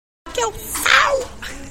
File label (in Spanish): nota de voz